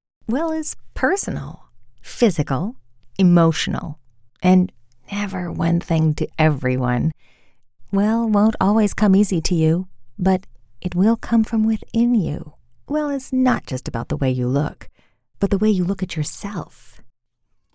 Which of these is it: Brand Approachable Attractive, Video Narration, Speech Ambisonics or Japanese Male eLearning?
Brand Approachable Attractive